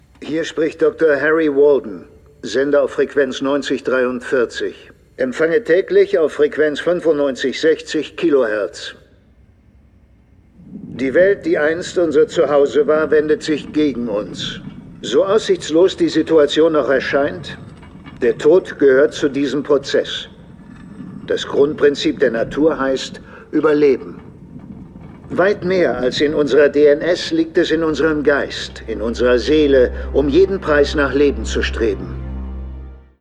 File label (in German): Synchron, maenner